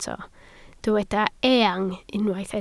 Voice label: "eang", Welsh

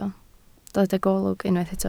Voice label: "golwg", Welsh

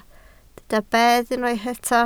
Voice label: "bedd", Welsh

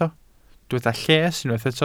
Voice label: "lles", Welsh

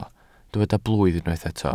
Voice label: "blwydd", welsh

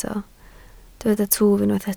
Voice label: "twf", Welsh

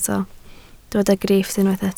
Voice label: "grifft", Welsh

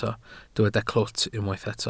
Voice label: "clwt", Welsh

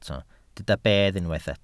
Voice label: "bedd", Welsh

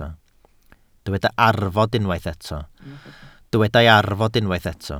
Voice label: "arfod", Welsh

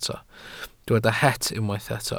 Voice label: "het", Welsh

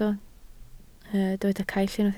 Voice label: "caill", Welsh

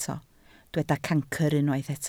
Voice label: "cancr", Welsh

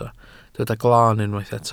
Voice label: "glaan", welsh

